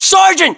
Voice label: rank sergeant, US

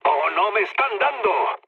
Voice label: aircraft damaged, mood low, speech; War Thunder

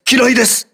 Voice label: voice message mine, crew ships japanese

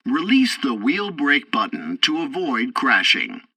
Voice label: landing breaks warning, tutorial, english; War Thunder

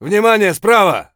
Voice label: flank right, voice; Escape from Tarkov

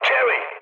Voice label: enemy detected, mood high, speech tur; War Thunder

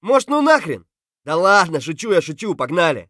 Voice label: scav mutter, voice; Escape from Tarkov; scav3

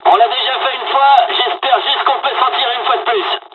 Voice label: dialog, dialogs french; War Thunder; midway

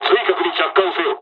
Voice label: landing, dialogs japanese; War Thunder

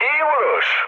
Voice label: bomb success, mood low, speech tur; War Thunder

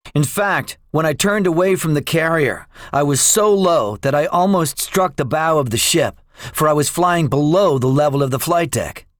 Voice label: debriefing, english; War Thunder

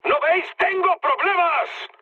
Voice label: aircraft damaged, mood high, speech; War Thunder

